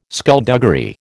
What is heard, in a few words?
"skulduggery", American